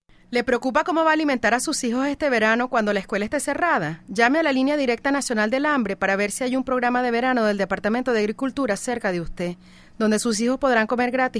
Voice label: Spanish PSA; SFSP